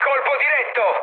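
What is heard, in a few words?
Italian bomb success mood med, speech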